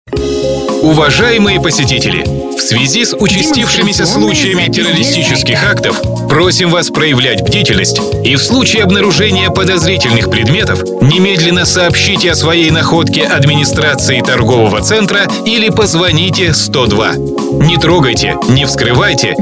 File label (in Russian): мужской; Ролик Антитеррор